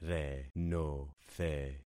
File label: "renothe", concat syllables; Affixing experiment